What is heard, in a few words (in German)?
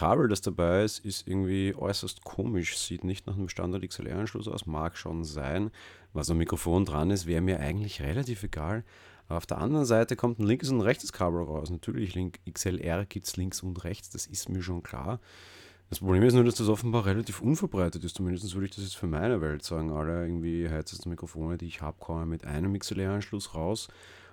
Blue Yeti Pro Test XLR